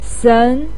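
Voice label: "sen", Mandarin Chinese syllable, second tone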